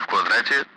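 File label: Speech, Russian, Target grid; Allied Flight; DCS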